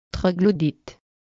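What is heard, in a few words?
"troglodyte", French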